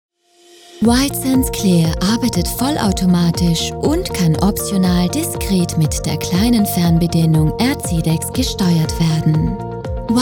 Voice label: german austrian commercial

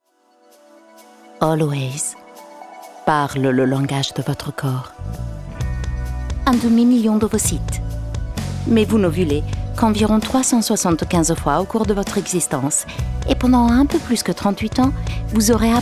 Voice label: french commercial

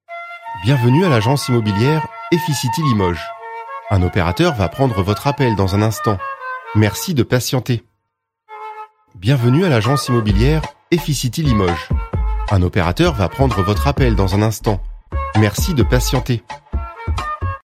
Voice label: french ivr

